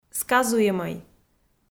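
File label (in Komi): "сказуемӧй", Komi, female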